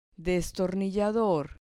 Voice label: "destornillador", Spanish